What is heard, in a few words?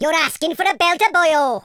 i'll get you, Welsh; speech